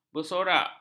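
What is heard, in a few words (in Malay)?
"bersorak", Malay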